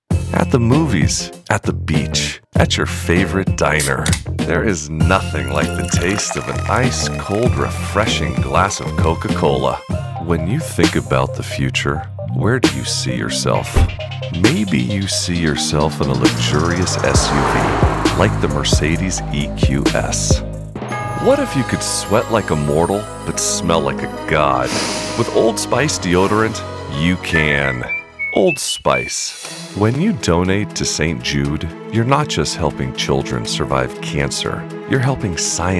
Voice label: Commercial